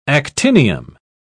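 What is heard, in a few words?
"actinium", British English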